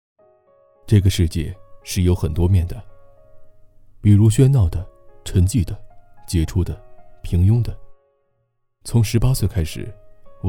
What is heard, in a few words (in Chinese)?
男 旁白; 贵州